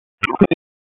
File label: Raleigh Police Northeast District Tac, p25; wake